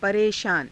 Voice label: "pareshaana", Hindi